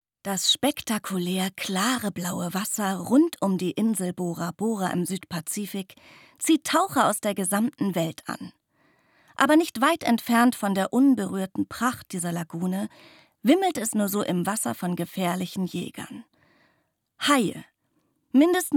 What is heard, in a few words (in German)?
Dokumentation